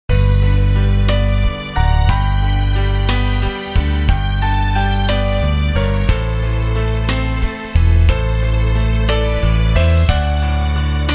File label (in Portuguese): aniversario TIA MASC, telemensagem aniversario tia